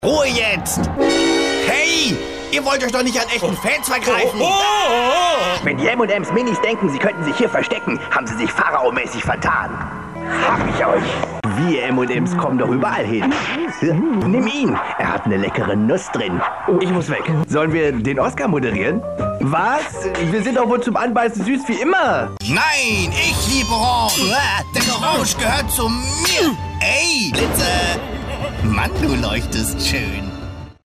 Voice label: Werbung Freundlich

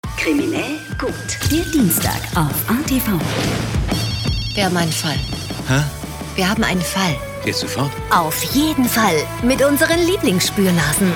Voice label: Trailer Dynamisch

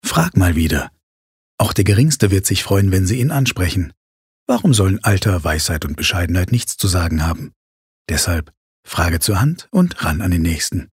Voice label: Werbung Sanft

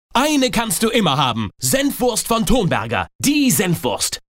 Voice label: Dynamisch

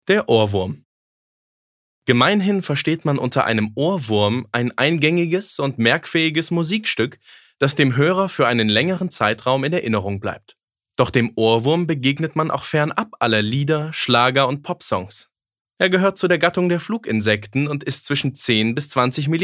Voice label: Neutral; Ohrwurm

